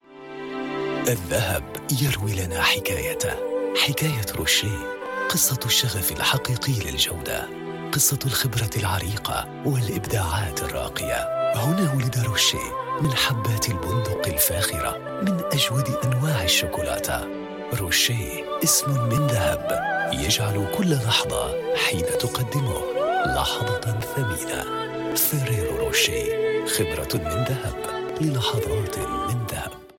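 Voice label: Arabic Commercial; GoLocalise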